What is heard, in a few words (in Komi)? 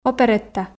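"оперетта", Komi, female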